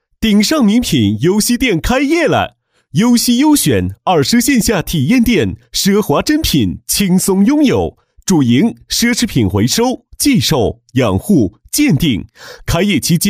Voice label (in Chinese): 男 开业广告 奢侈品回收, 年轻气质 轻松活泼; 男D2-开业广告-奢侈品回收